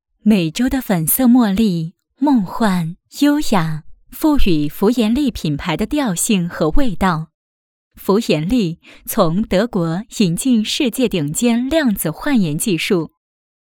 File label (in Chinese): 女 磁性优雅 形象片; 珂蓝国际形象片